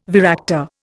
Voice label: "varactor", Indian

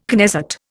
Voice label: "Knesset", Indian

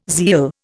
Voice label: "zeal", Indian

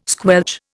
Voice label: "squelch", Indian

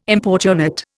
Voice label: "importunate", Indian

